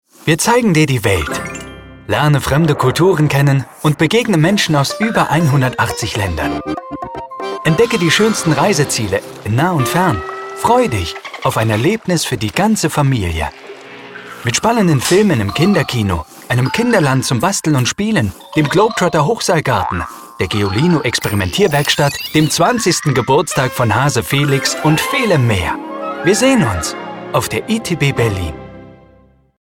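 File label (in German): werbung, deutsch männer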